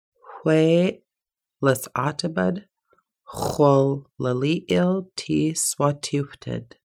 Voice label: there is no death only a change of worlds, Lushootseed